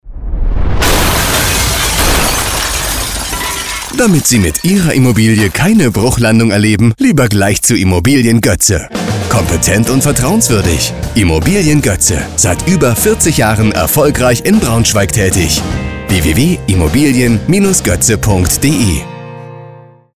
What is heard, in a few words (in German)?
radiowerbung